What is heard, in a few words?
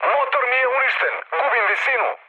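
Serbian damaged engine mood low, speech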